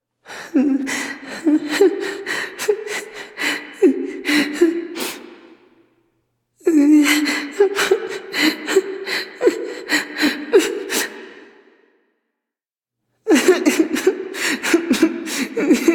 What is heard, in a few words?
Class Intro Student Male B, Student Whimper; New War; Zariman